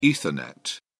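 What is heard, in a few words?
"ethernet", British English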